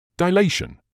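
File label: "dilation", British English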